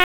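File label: Police Dispatch, p25; Raleigh; Southeast District; wake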